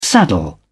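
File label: "saddle", English, British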